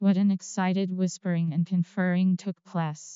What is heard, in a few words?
Creaky; arctic